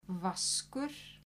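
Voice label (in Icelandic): "vaskur", Icelandic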